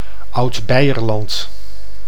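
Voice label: "Oud-Beijerland", Dutch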